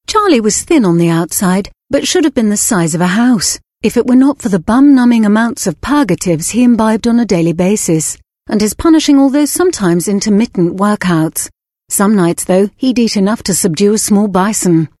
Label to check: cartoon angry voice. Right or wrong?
Wrong